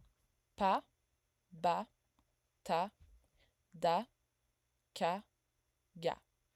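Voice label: French stop a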